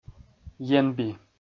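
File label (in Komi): "енби", Komi, male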